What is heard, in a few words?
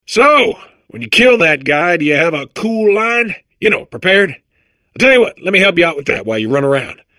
babble; portal2